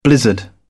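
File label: "blizzard", British English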